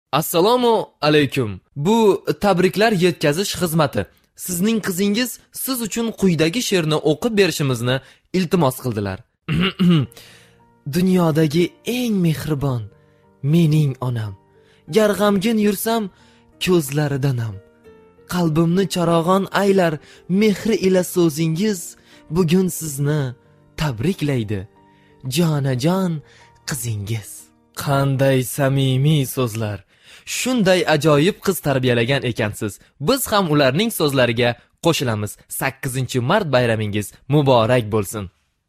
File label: Uzbek voice over